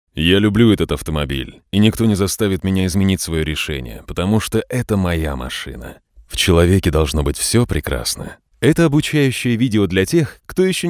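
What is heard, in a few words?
russian male narration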